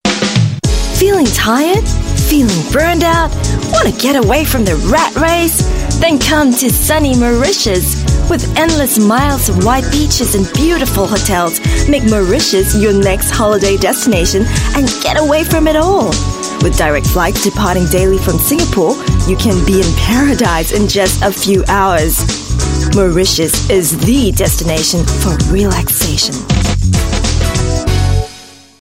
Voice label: Holiday, English Singaporean Female

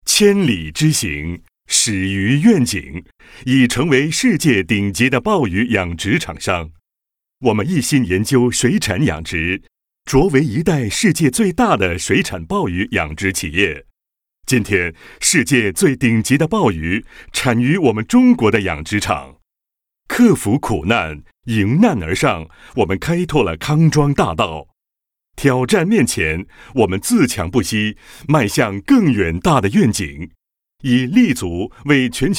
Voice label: Mandarin corporate video, China male

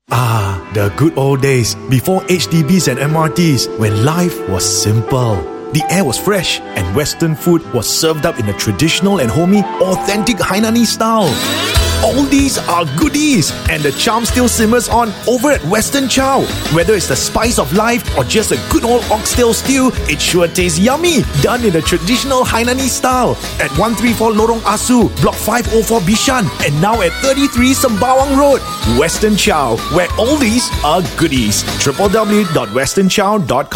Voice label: English Singaporean Male, Commercial; WesternChow

